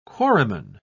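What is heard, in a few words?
"quarryman", English, British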